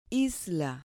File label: "isla", Spanish